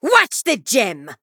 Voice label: Female Draenei Attack